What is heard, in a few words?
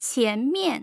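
"qianmian", Mandarin Chinese, tone pairs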